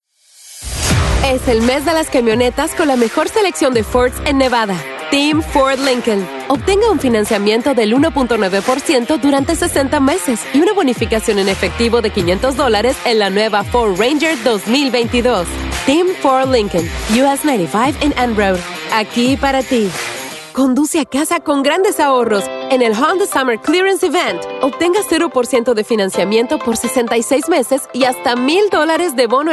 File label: Spanish Latin American automotive